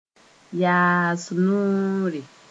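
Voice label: "yaas noore", Mooré; provoke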